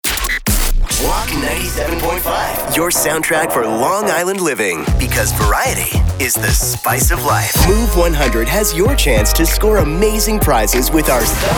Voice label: Radio AC